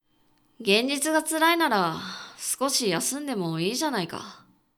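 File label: male; 現実が辛いなら、少し休んでもいいじゃないか。; クール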